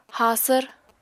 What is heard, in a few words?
"haasvr", Apatani